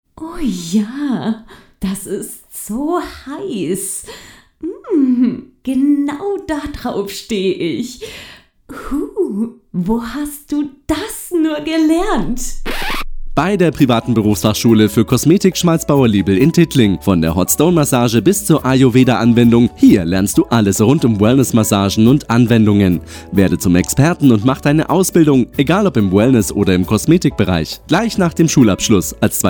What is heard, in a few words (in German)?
Werbung; Schmalzbauer Liebl